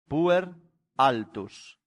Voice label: "puer altus", Latin; santillana

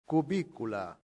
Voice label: "cubicula", latin; santillana

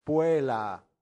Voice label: "puella", latin; santillana

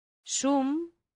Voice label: "sum", latin; santillana

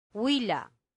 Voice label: "villa", latin; santillana

